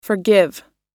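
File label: "forgive", English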